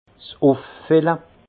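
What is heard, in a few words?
"uffille", Alsatian, Haut Rhin, remplissage; vin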